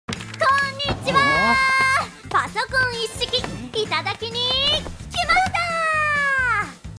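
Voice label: "konnichiwa", Japanese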